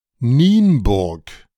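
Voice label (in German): "Nienburg" German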